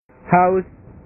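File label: "haus", Indonesian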